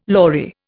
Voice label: "lory", Indian

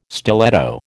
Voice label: "stiletto", American

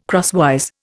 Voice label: "crosswise", Indian

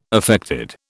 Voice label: "affected", British

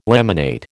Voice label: "laminate", American

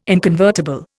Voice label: "inconvertible", Indian

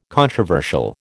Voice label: "controversial", American

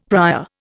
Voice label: "prior", Indian; Dictionary

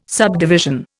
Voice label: "subdivision", Indian

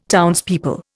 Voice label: "townspeople", Indian

